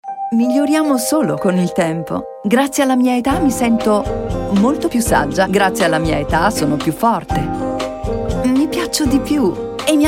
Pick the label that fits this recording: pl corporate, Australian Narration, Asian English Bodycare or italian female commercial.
italian female commercial